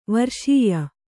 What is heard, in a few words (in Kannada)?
"ವರ್ಷೀಯ", Kannada